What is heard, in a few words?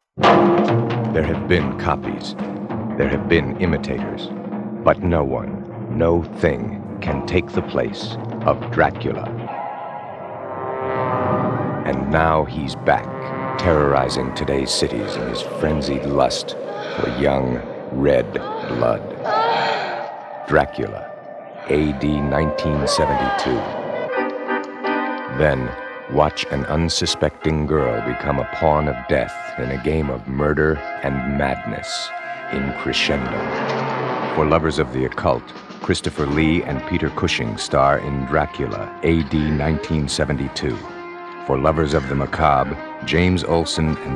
Radio Spots; Dracula A.D. 1972; Crescendo